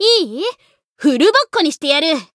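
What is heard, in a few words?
Voice variable chant, Japanese; yazuki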